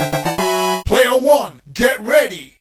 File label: 8bit start